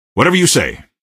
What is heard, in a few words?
squad affirm, male; Garry's Mod; hl2